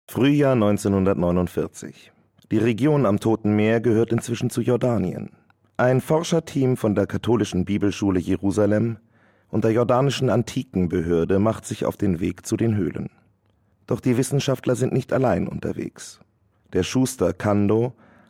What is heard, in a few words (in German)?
Kommentar